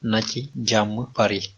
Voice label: bon appetit, wolof